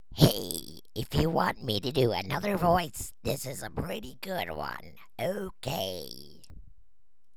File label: weird voice; Brickshelf